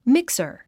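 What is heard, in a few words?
"mixer", English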